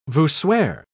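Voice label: "voussoir", British English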